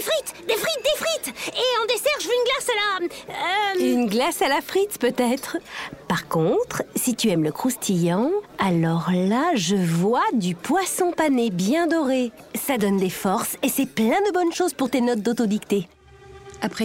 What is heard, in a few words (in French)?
MEDLEY VOIX MAMAN